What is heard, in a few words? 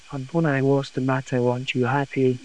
Voice Acting, es